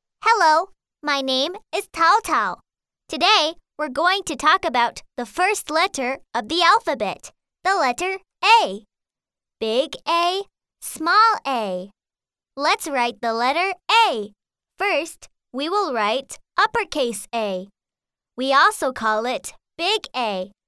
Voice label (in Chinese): 美式英语 女童, 外语